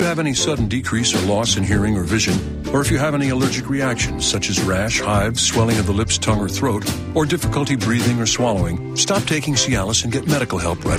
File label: commercial; equator